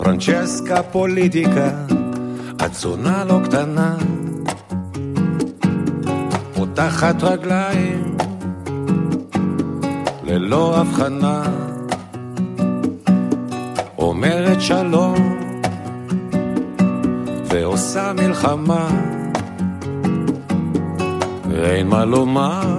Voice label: Double Talking